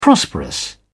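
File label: "prosperous", British English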